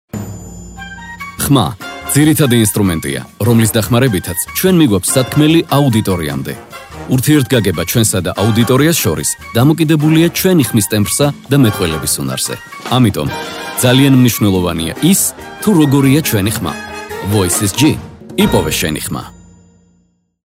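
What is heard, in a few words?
Georgian, male, documentary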